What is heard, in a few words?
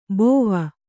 "ಬೋವ", kannada